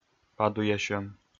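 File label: "падуйясьӧм", Komi, male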